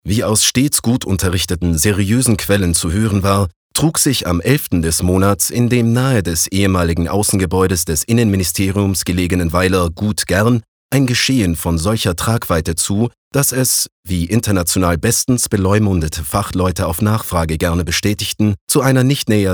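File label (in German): Kommentar Trocken Neutral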